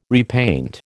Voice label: "repaint", American

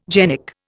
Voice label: "genic", Indian

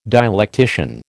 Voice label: "dialectician", American

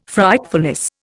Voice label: "Frightfulness", Indian

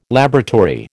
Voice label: "laboratory", American

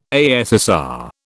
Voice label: "ASSR", British

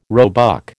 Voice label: "roebuck", American

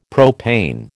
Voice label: "propane", American

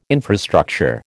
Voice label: "infrastructure", American

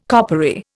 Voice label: "coppery", Indian

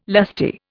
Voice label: "lusty", Indian

